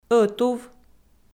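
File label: "ӧтув", Komi, female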